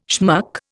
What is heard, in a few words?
"schmuck", Indian